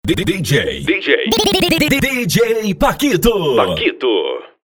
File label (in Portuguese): vinheta dj